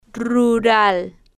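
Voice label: "rural", Spanish, female, slow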